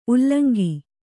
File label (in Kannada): "ಉಲ್ಲಂಗಿ", Kannada; ullaŋgi; noun; snipe